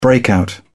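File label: "breakout", British English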